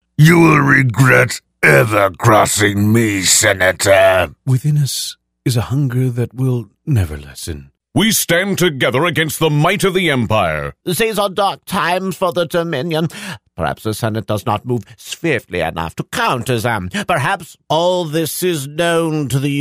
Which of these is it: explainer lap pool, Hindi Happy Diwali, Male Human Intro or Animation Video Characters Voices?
Animation Video Characters Voices